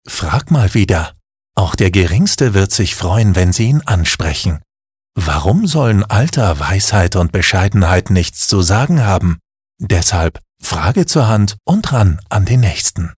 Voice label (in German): Werbung Sanft